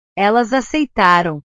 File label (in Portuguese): "elas aceitaram", portugues; verbos